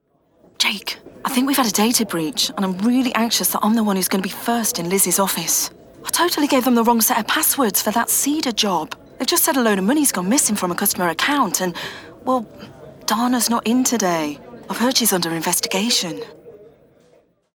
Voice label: Narration E LEARNING